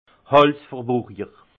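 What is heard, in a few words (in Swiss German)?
"halsverwurjer", alsace Haut-Rhin; vin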